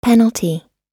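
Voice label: "penalty", English; oxford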